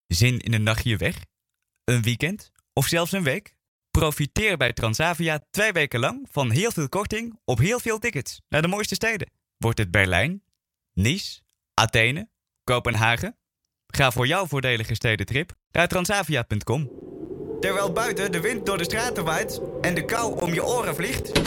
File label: Male, Dutch